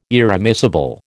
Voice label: "irremissible", American